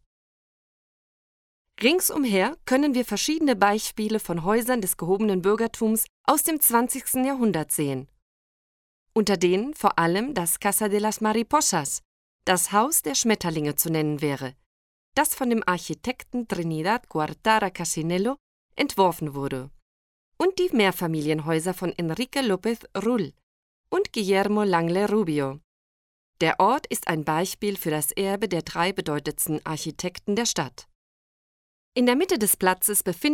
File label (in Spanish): AUDIOGUIA ALMERIA ALEMAN puerta de purchena